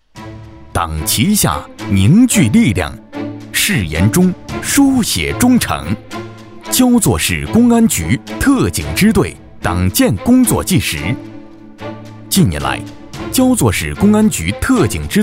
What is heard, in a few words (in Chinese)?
男 专题 特警; 焦作特警